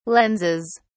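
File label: "lenses", British English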